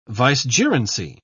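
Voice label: "vicegerency", British English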